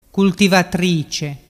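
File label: "cultivatrice", Vernazzese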